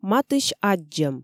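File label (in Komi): "матысь аддзӧм", Komi, female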